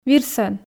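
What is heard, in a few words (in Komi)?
"вир сӧн", female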